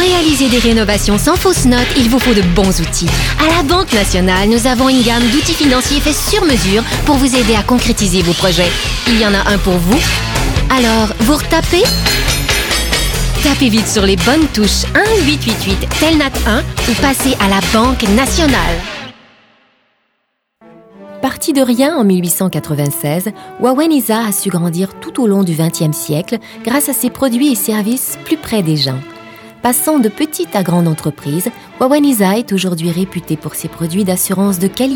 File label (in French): voix pub